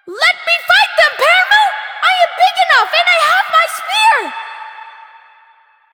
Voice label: Child

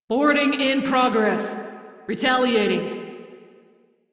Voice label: crew enemy spot, female; Dialog; Warframe; Railjack Crew; Steel Meridian

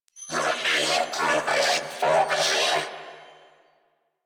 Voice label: Cover Me, Female; en; Warframe; New War; Narmer Grineer

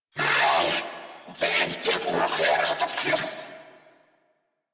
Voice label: Patrol, Female; New War; Narmer Grineer

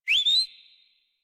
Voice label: Horse Start Adult; Warframe; Duviri

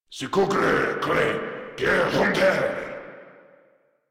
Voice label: Attack, Grineer Male

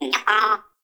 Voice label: Baby Vocal Spoken; Nokko; Warframe; Nokko Colony; Find Nokkos

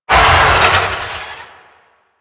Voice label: Necramech Melee Attack, Barks; Warframe

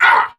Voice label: Pain, Barks Battle; Warframe; Dax; Dax Knight

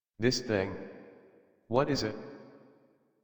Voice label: Adult, en, Dialog; Rabbit; Warframe; Duviri Paradox Quest

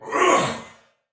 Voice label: Attack Heavy Soldier Male, Battle; Dialog; Barks; Sinecure

